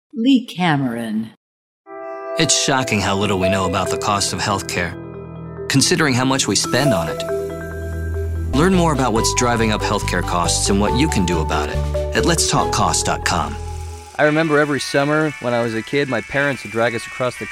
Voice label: Commercial